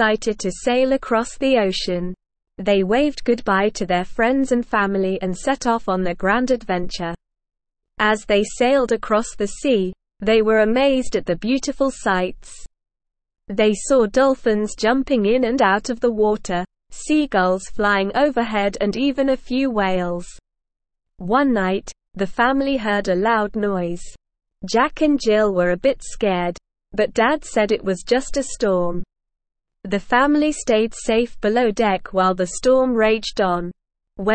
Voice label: ESL Short Stories for Kids SLOW reading The Exciting Sea Voyage